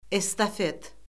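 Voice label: "estafet", Azerbaijani; Orfoqrafiya Lüğəti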